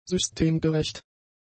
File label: "systemgerecht", German; adjectives